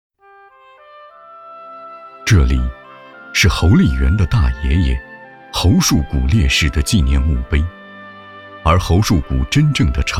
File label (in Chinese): 男 人物专题